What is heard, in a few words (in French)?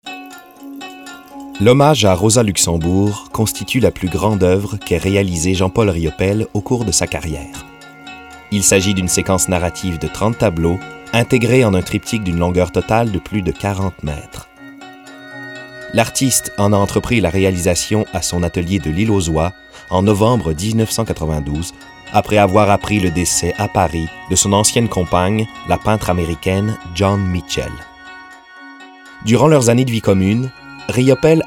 Narration; Hommage Rosa L